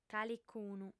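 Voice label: "calicunu", female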